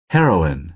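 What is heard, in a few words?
"heroin", American English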